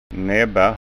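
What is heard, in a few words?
"nabba", Scanian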